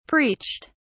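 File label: "preached", British English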